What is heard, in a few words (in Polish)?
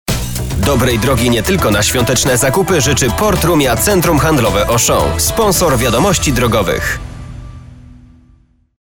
M REKLAMA